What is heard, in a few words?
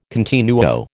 "continuo", American